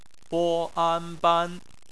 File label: ban, Chinese Phonetics